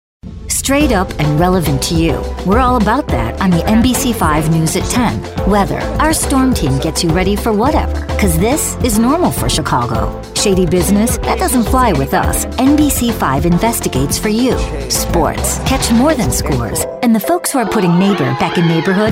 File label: Promo Imaging